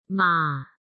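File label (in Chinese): "马", Chinese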